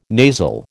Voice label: "nasal", American

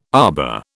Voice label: "arbour", British

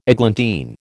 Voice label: "eglantine", American

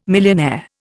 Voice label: "millionaire", Indian

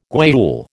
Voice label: "guayule", American

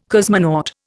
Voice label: "cosmonaut", Indian

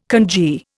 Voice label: "kanji", Indian

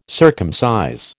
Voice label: "circumcise", American

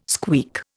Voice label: "squeak", Indian

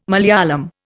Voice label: "Malayalam", Indian

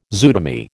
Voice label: "zootomy", American

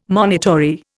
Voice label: "monitory", Indian

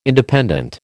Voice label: "Independent", American

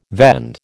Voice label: "vend", American

